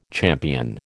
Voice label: "champion", American